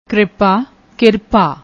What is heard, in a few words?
"kripa", Punjabi; ਕਿਰਪਾ